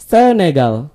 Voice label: "senegal", id; gcompris-data; geography